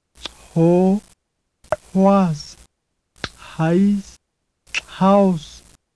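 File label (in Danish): nama, ustemt nasal, clicks; [ŋ̥ǀʰo] [ŋ̥ǂʰoas] [ŋ̥ǂʰais] [ŋ̥ǀǀʰaos]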